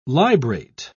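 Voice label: "librate", British English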